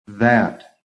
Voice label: "THAT", american